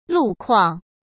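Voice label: "路况", Mandarin Chinese; hsk; chinese-flashcards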